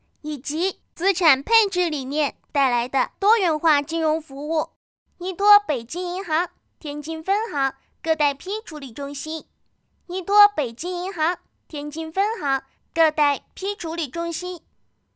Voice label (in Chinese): 童声, 可爱的女童